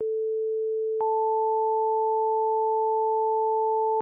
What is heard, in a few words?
synthetic